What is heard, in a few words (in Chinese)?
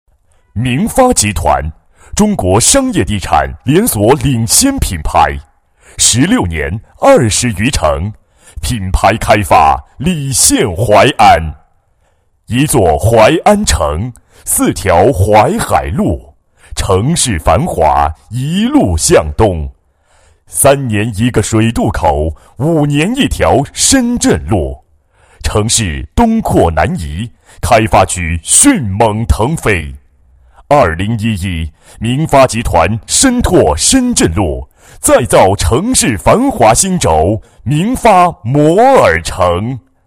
男 房地产 干音, 国语男声配音 厚重大气